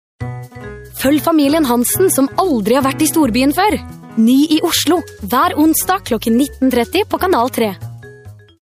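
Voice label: norwegian female commercial, voice demos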